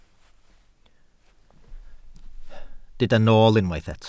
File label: "nool", Welsh